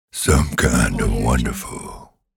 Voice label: deep sexy male voice some kind of wonderful